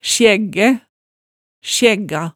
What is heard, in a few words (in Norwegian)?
"sjæggæ", hemsedalsmaalet